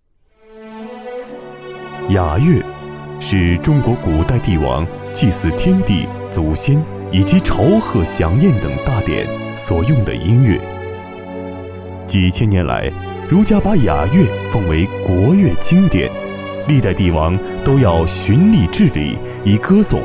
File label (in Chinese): 男 申报片 娓娓道来风格; 赤峰雅乐传承人